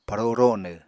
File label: "paro ronw", Apatani